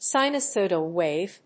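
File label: "sinusoidal wave", English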